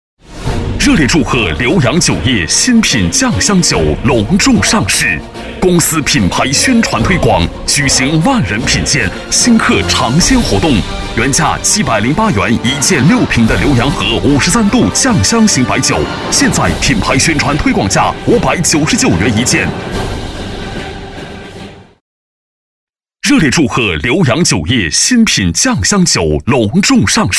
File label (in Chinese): 男 酒广告 大气高端; 浏阳河酒业新品酱香酒